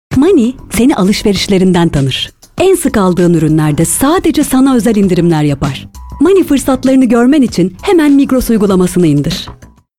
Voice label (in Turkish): reklam; migros money